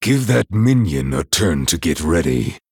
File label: Male Night Elf Error Summon Sickness